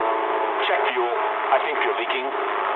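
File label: English tank damaged mood low; War Thunder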